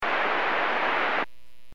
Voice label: Aviation 121.5 Emergency